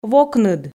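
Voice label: "вокныд", Komi, female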